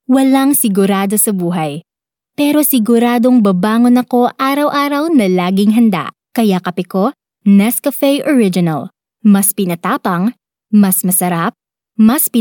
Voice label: Filipino Tagalog Promotional